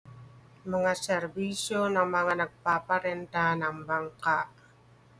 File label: Tagalog